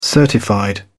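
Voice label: "certified", British English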